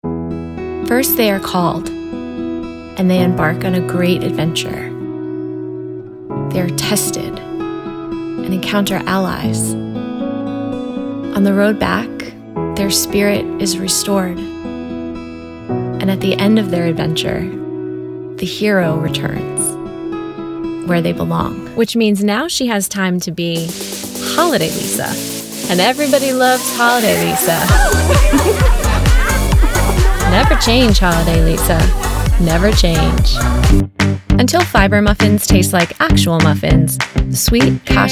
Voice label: Commercial